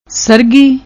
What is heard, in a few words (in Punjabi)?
"srghi", Punjabi; ਸਰਘੀ